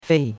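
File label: "fei", Chinese, fourth tone; Cours de Chinois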